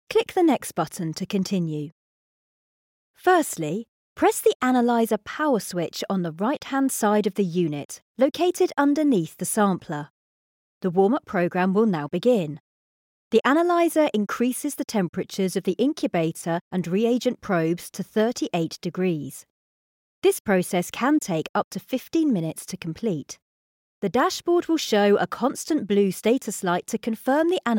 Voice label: Elearning